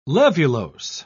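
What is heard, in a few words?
"levulose", British English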